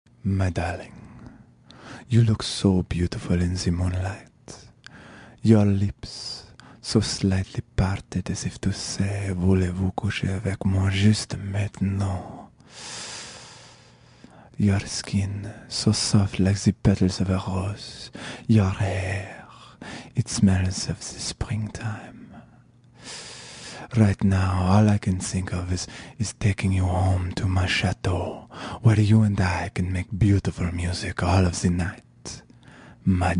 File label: French accent seduction; loverboy